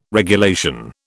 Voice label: "regulation", British